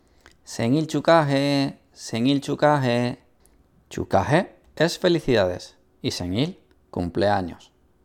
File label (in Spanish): audio de feliz cumpleaños en coreano